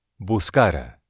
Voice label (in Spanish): "buscar", Spanish